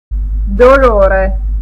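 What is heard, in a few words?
pain, Italian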